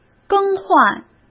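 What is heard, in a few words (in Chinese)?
"更换", Mandarin Chinese; hsk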